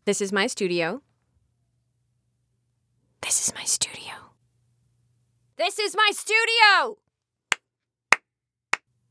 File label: STUDIO SAMPLE